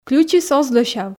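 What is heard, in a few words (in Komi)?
ключыс оз лӧсяв, Komi, female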